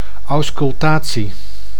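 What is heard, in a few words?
"auscultatie", Dutch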